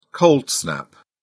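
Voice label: "cold snap", British English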